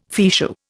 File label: "fichu", Indian